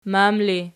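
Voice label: "mam" ergative, Archi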